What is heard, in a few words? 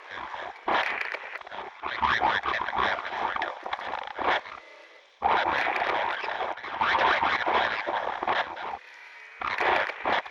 police radio chatter